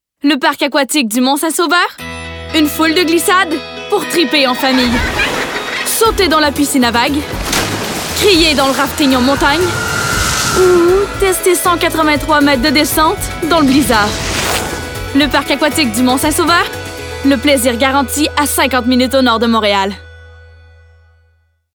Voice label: annonceur fr qc naturel affirmee determinee; parc aquatique mont st sauveur

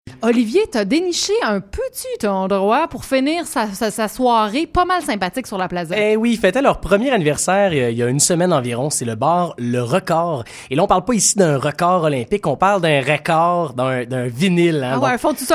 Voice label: chronique chroniqueur francais quebecois naturel amicale; plaisirs gourmands

